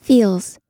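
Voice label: "feels", US English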